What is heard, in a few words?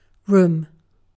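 "room" English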